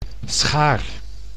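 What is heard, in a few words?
"schaar", Dutch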